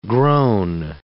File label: "groan", English